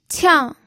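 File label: "qiang", Mandarin Chinese, fourth tone; pinyin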